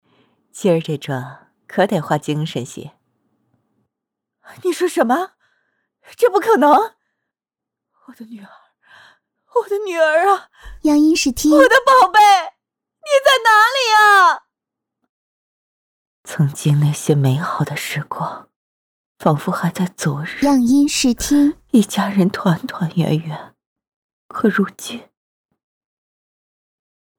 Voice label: 深沉

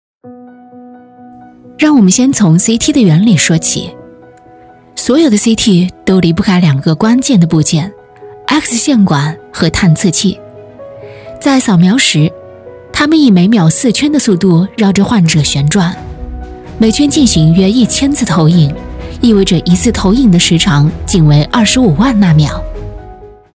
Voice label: 宣传片-女21-成熟稳重-解说

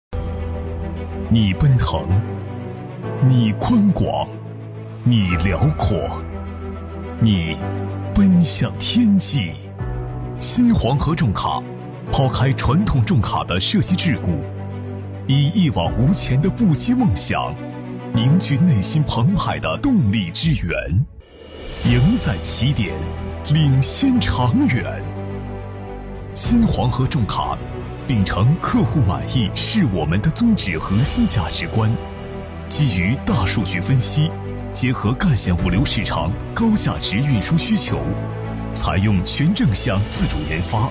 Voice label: 广告 男; 央视中国重汽

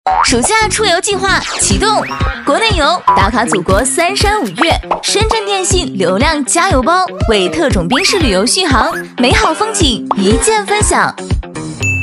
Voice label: 活泼欢快

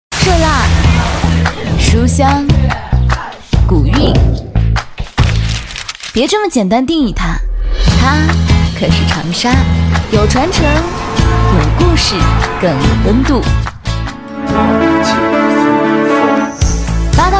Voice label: 御姐欢快

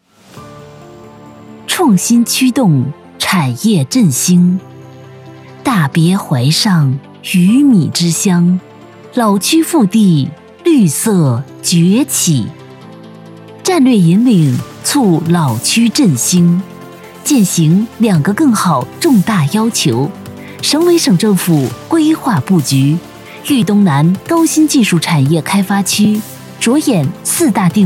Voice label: 宣传片-女32-激昂-企业宣传

